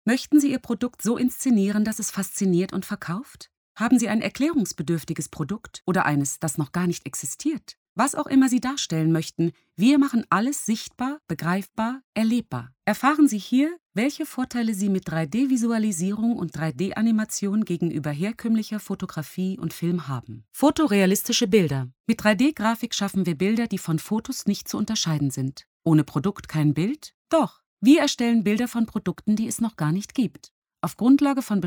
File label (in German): Erklaervideo; VLTech